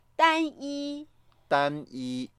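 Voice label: "dan1yi1", Mandarin Chinese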